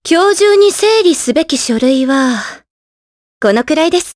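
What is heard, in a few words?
vox dialogue, voices, Japanese; Veronica; kingsraid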